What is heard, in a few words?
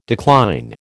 "decline", American